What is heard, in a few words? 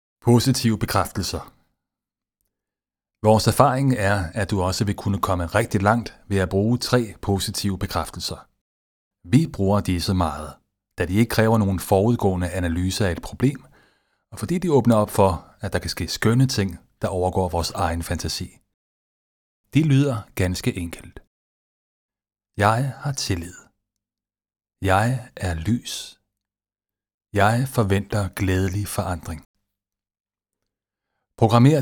Ebook and E-learning